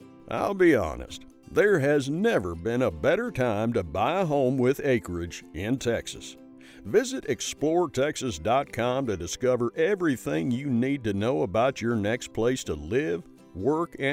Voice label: Radio Ad